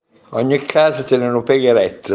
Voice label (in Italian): proverbio abruzzese